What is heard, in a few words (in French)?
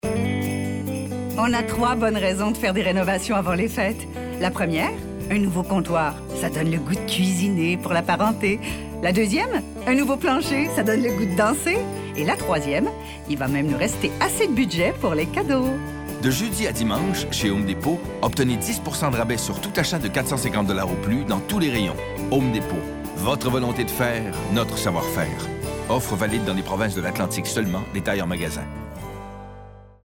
voix femmes